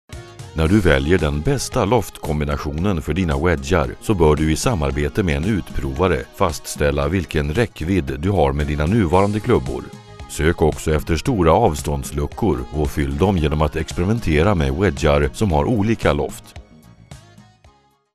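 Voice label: Swedish, voice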